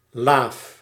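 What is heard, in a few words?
"laaf", Dutch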